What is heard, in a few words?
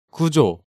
"gujo", Korean